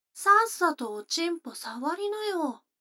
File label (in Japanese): 女の子台詞, ASMR